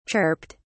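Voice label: "chirped", English, British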